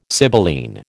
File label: "sibylline", American